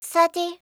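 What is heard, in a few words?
"30", English; eng suuji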